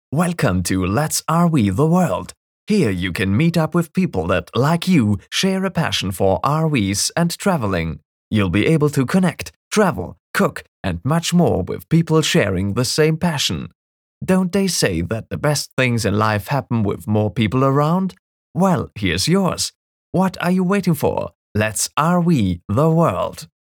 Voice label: english corporate videos